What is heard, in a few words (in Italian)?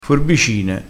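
"forbicine", dialettale crespinese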